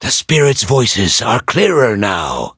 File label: levelup en